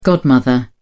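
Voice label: "godmother", British English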